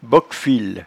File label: "bockfil", patois jura